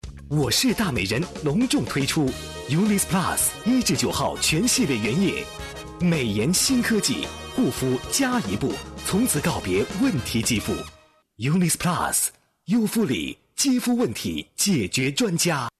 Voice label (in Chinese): 男 国 大音 美肤广告; 湖南卫视“优肤理“美肤广告